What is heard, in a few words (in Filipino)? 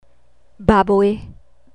"baboy", Tagalog; animals